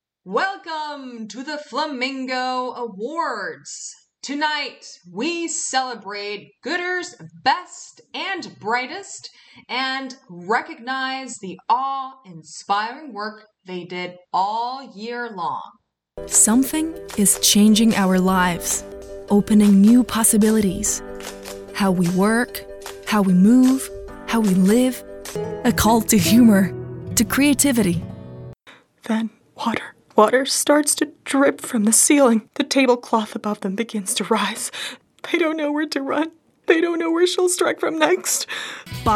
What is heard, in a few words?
ENGLISH VOICE OVER; actress